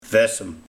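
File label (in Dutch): "Vessem", dialect; Hiel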